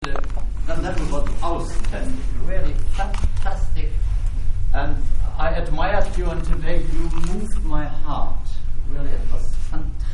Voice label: announcement